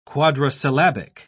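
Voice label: "quadrisyllabic", English, British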